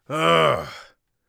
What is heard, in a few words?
irritated, Warrior Voice; Warrior Voice Pack